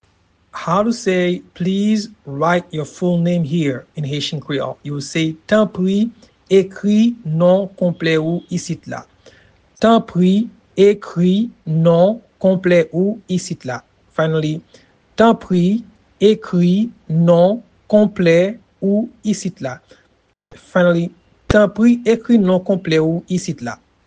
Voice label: Please write your full name here in Haitian Creole Tanpri ekri non konple ou isit la